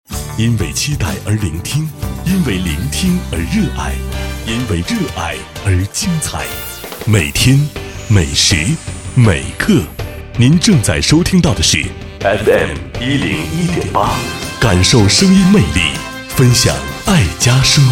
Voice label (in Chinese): 男60号 节目包装 频道宣传; 交广主播